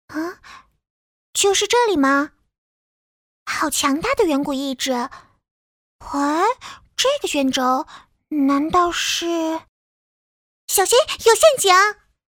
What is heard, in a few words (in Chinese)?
女童; 射手